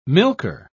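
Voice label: "milker", British English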